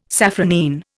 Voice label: "safranine", Indian